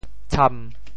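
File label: "tsham1", Teochew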